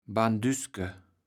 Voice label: "Banduske", kaevels platt

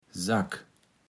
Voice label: "Sack", Platt

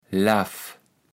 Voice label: "laff", kaevels platt

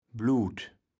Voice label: "Blut", kaevels platt

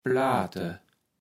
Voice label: "Plaate", kaevels platt

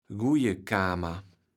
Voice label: "guije Kamer", kaevels platt

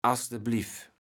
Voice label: "asdebliff", kaevels platt

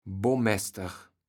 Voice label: "Bommäster", kaevels platt

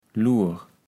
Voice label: "Lur", kaevels platt

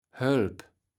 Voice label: "Hölp", kaevels platt

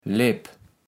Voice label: "Lepp", kaevels platt

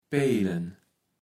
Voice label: "Peelen", kaevels platt

